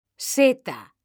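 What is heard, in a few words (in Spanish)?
"seta", female; mekanta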